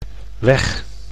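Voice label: "weg", Dutch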